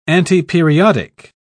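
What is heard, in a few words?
"antiperiodic", British English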